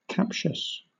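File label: "captious" English, British accent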